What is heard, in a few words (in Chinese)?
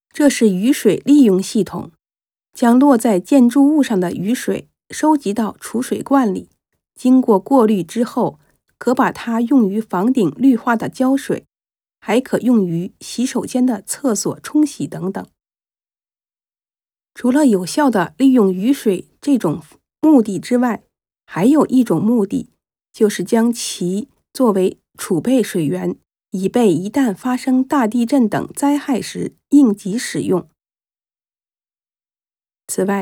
Chinese